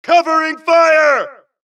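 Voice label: suppress, dialogue, Allies; mohaa; Mission 2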